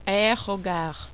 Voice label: "aerogare", French

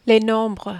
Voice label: "les nombres", French

